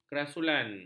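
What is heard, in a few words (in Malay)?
"kerasulan", Malay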